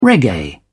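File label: "reggae", British English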